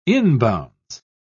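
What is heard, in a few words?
"inbounds", British English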